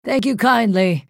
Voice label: female night elf thanks